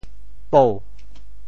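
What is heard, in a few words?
"pou2", Teochew; 脯; 潮州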